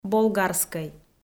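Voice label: "болгарскӧй" Komi, female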